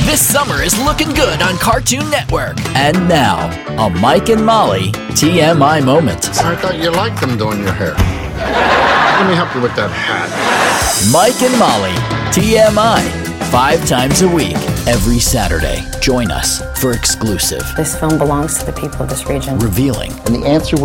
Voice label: Male Voice Over Talents Promo

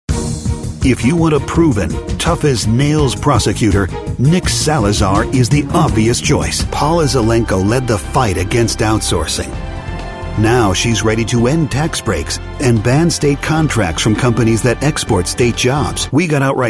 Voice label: Male Voice Over Talents Political

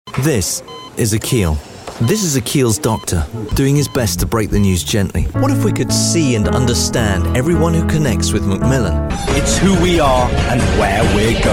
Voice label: Male Voice Over Talents Commercial

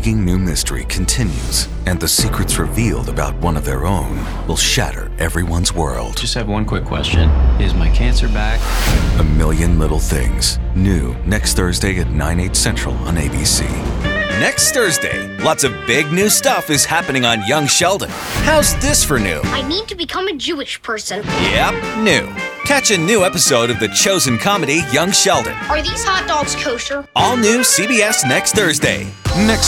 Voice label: Male Voice Over Talents Promo

